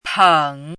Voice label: "peng3", 汉字语音

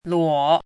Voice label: "luo3", 汉字语音; chinese-voice

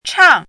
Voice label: "chang4", 汉字语音; chinese-voice

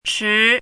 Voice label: "chi2", 汉字语音; chinese-voice

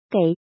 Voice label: "gei3", 汉字语音; chinese-voice

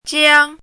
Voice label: "jiang1", 汉字语音; chinese-voice

